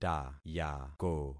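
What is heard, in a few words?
"tayako", concatenated syllables; Affixing experiment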